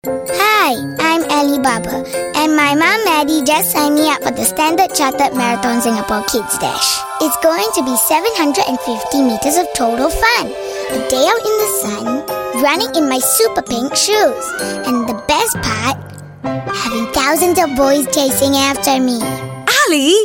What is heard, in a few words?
Asian English Mum Kid; StanChart